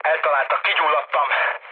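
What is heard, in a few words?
on fire, mood med, Hungarian; speech; War Thunder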